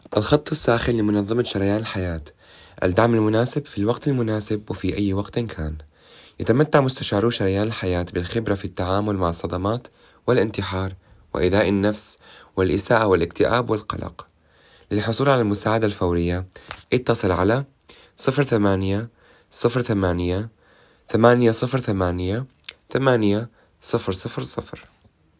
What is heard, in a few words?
Arabic recording